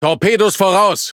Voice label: Speech Alarms Torpedo Ahead; World Of Warships